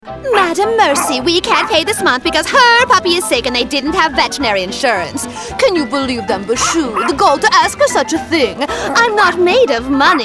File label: Animation; Nominated for best Animation